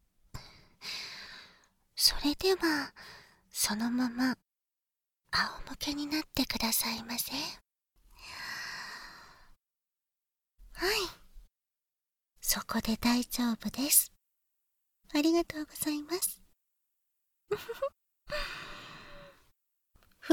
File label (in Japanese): 耳洗浄（囁き重視編集 効果音あり大きめ）, ASMR